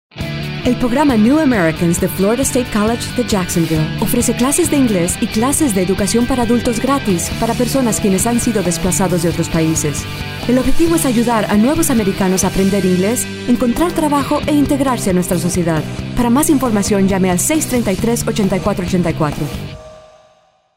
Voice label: friendly